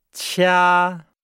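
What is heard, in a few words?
"qia", Chinese; Nihao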